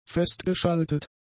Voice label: "festgeschaltet", german; adjectives